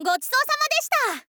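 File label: gochisousama deshita, Japanese, girl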